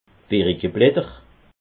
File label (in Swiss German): "birikeblatter", alsace